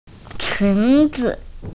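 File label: "qun2zi5", Mandarin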